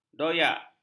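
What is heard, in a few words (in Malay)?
"doyak", Malay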